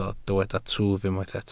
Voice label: "twf", Welsh